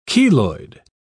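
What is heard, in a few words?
"cheloid", British English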